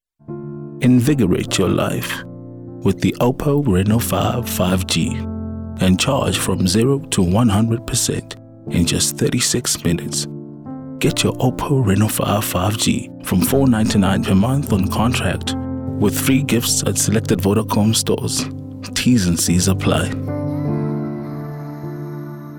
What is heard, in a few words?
Soft Sell